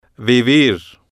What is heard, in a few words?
"vivir", Spanish